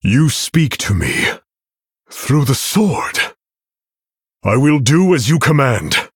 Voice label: Male Human In Game Victory Post Explosion

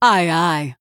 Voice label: Female Human greetings

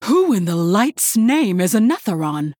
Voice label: Female Human; Cariel; Kurtrus

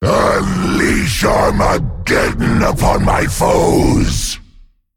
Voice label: Male Elemental Bark

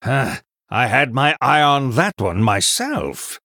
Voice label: Male Human Recruit Large Minion

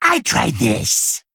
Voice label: Male Kobold

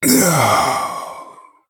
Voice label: Male Human Death

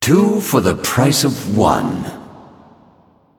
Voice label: Male Ethereal Emote; Power of Creation

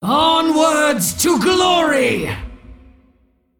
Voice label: Female Dragon; Onyxia

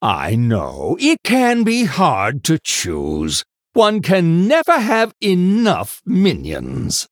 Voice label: Male Human Idle

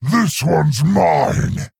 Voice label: Male Quilboar Attack

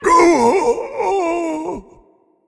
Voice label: Male Death; Eternal One

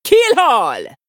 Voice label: Female Troll Attack

